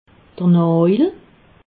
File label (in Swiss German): "najel", alsace